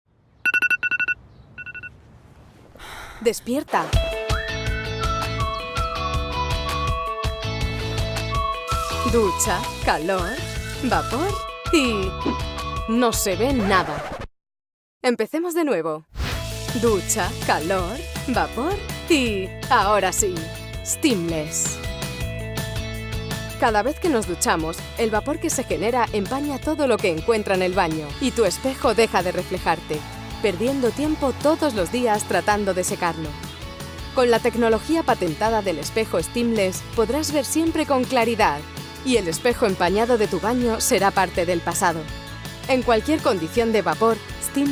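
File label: Spanish, female, Commercial Spot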